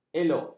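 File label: "elok", Malay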